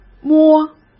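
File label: "摸", Mandarin Chinese; chinese-flashcards; hsk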